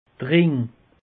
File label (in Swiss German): "ring", alsace; peche